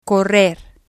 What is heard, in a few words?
"correr", spanish